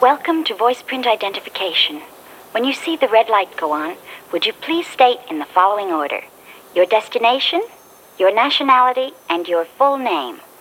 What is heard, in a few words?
voice print identification